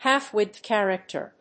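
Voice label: "half width character", English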